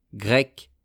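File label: "grecque", French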